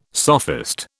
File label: "sophist", British